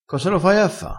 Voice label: "cosa l ha fatto a fa", crespinese

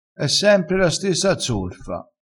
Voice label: "stessa zorfa", crespinese

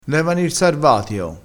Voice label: "sarvatio", crespinese

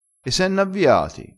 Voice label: "avviassi", crespinese

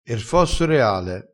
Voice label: "fossoreale", crespinese

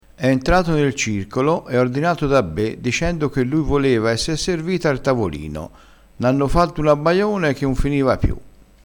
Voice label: "abbaione", Crespinese

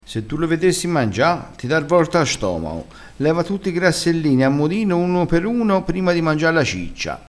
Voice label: "grassellino", crespinese, vocabolario dialettale

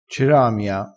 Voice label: "ceramia", crespinese

